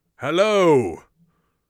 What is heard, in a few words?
hello, Mage Voice; Mage Voice Pack